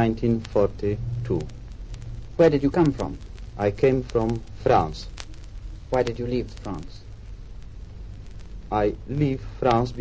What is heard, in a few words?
war refugee english speaking test